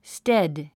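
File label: "stead", English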